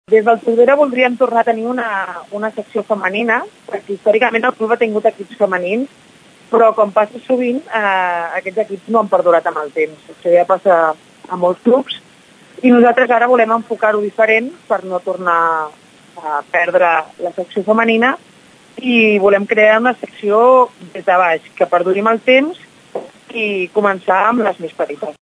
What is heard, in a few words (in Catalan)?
femenina